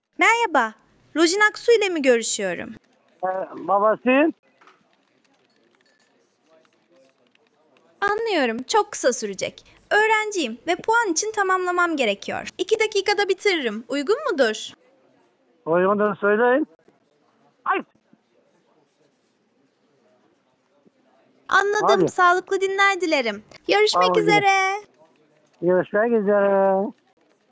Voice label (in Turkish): Yapay Zeka Arama, Görüşme Kaydı; Anlıyorum, çok kısa sürecek.